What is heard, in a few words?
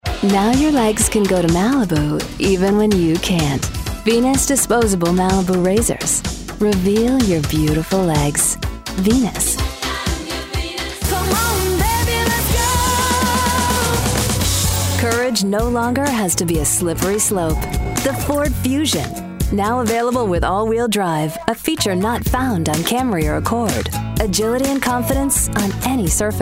commercial, commercial women